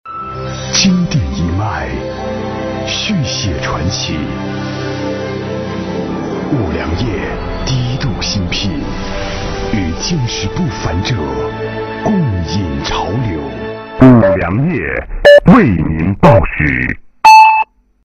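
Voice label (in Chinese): 广告 男 走心 酒广告; 五粮液酒广告(原片）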